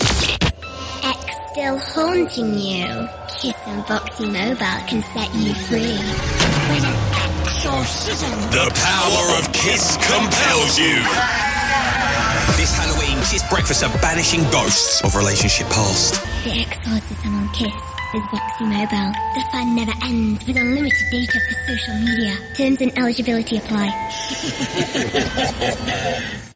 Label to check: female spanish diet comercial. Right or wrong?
Wrong